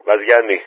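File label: Speech, Greek, Callsign, Vaziani; DCS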